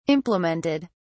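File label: "implemented", British English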